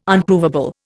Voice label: "Unprovable", Indian